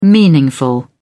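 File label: "meaningful", British English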